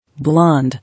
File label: "Blonde", English